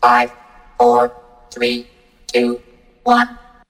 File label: "1 2 3" robot; Voice; numbers and letters